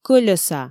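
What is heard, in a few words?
"кӧльӧса", Komi, female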